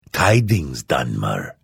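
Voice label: Hello, Vo; Morrowind GOTY GOG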